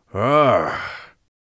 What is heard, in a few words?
inquisitive, Mage Voice; Mage Voice Pack